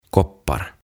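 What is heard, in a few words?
"Koppar", Swedish; Kupit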